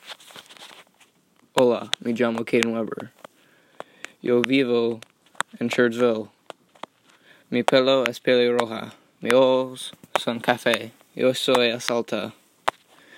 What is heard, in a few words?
spanish two passport